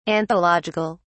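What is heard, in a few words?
"anthological", British English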